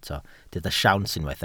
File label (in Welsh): "siawns", Welsh